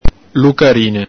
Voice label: "lucarine", Venetian

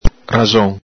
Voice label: "raxon", Venetian

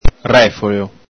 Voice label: "refolo", Venetian

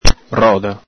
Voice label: "roda", Venetian

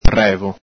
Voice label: "revo", Venetian

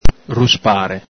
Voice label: "ruspare", Venetian

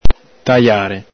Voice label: "tajare", Veneto

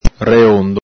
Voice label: "reondo", Venetian

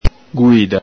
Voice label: "guìda", Venetian

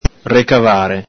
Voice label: "recavare", Venetian

